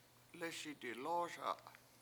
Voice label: "lEESi dilO7oSa", washo; we both danced